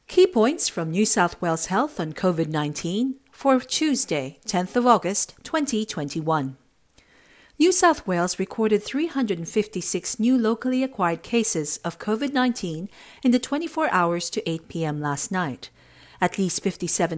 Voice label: english